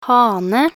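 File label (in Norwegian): "hane", Norwegian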